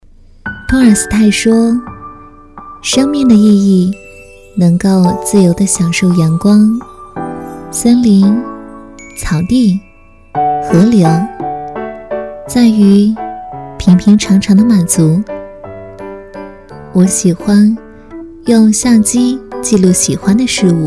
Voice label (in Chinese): 走心旁白 甜美治愈, 女